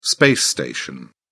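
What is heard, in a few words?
"space station", English, British English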